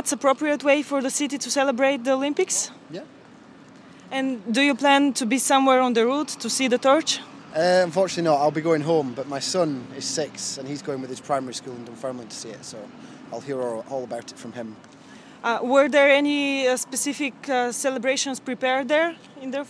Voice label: talking, edinburgh; citizenrelay